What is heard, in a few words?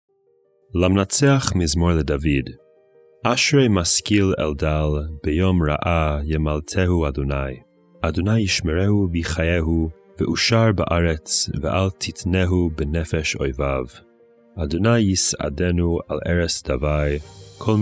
poetry and prayer psalm hebrew